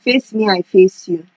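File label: "face me I face you", Nigerian Pidgin, female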